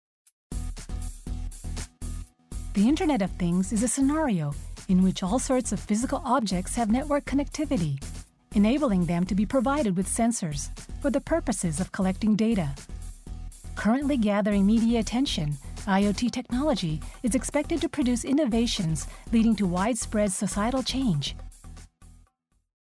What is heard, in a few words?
foreigner